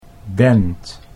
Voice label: "bent", Dutch